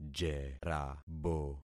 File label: "jerabo", concat syllables; Affixing experiment